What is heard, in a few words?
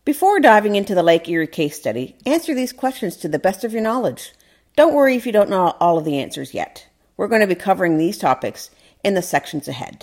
narration